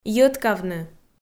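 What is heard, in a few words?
"йӧткавны", Komi, female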